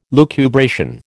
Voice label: "lucubration", American